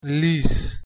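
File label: "lis", Persian, male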